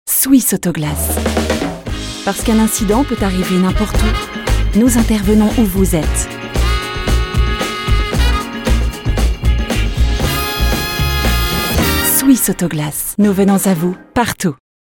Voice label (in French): Spot; ♀fr; Swiss Auto Glass TV Spot; FRA